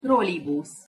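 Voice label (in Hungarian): "trolibusz" Hungarian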